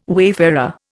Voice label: "wayfarer", Indian